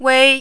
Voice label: "wei1", Mandarin

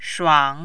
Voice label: "shuang3", Mandarin

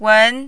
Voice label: "wen2", Mandarin

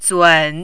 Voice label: "zun3", mandarin

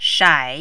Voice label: "shai3", mandarin

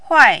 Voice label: "huai4", Mandarin

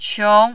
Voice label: "qiong2", mandarin

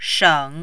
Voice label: "sheng3", Mandarin

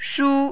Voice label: "shu1", Mandarin

